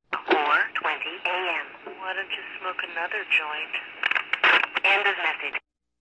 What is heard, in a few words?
go smoke another joint msg, VOCALS and SPOKEN WORD, FEMALE; MISC and SPOKEN WORD